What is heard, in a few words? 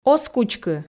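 "оз кучкы", Komi, female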